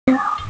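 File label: "bai2yun2" Mandarin Chinese, female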